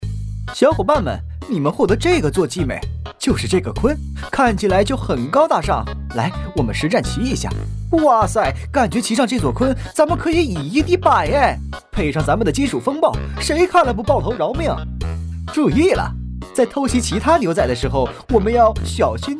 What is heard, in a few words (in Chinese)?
信息流游戏; 坐骑鲲